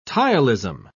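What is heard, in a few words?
"ptyalism", British English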